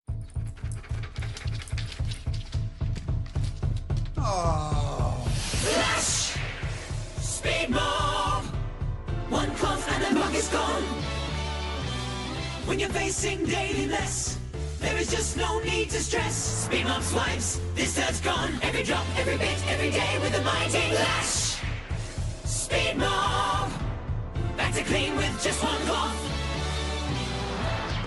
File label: commercial; FLASH SPEEDMOP